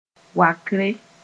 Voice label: "wakre", Mooré; pride

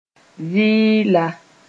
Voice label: "vɩɩla", moore

